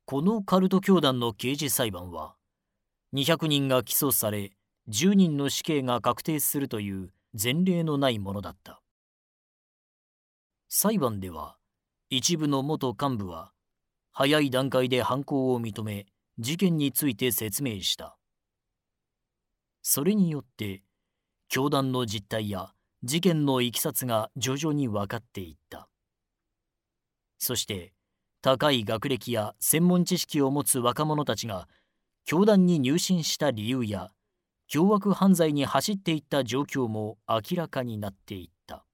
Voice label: male; Documentary program